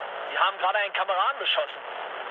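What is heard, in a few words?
German player killed ally mood low, speech; War Thunder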